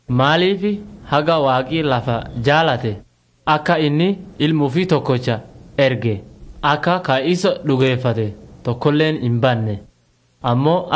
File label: words of life borana gabbra